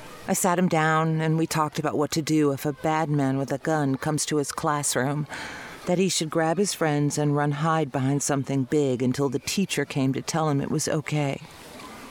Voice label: Political Female

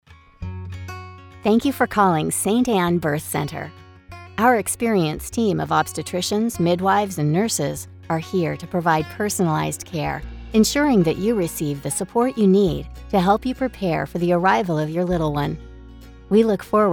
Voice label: IVR, commercial voiceover

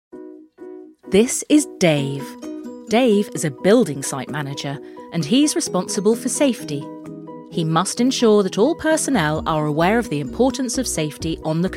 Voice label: Explainer British Female

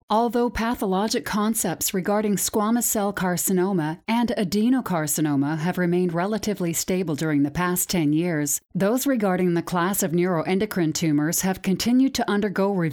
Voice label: Narration Female Medical; commercial voiceover

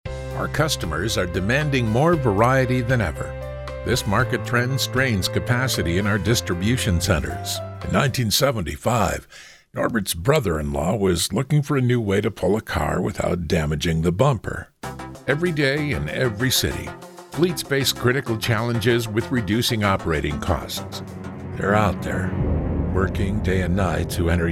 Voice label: Narration Male, Narration, Corporate

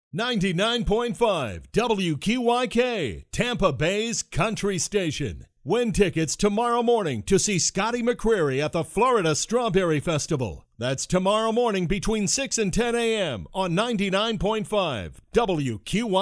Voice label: Imaging Male; Commercial Voiceover, Radio Imaging

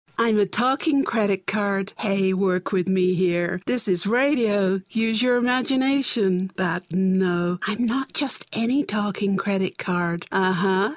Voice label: Characters British Female, commercial voiceover

